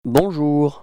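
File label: "bonjour", French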